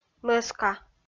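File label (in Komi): "мӧска", Komi, female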